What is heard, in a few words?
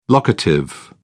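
"locative", English, British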